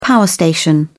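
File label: "power station", English, British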